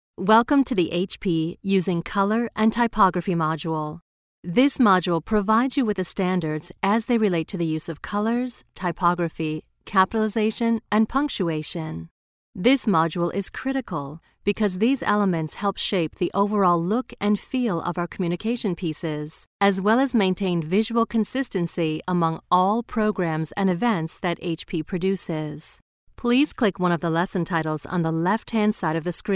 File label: E-Learning Voices